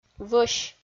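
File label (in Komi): "вӧсь", Komi-Zyrian, female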